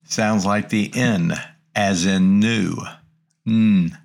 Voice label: Greek Consonants Nu; greek grammar